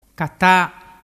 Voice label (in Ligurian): "cata", dialettu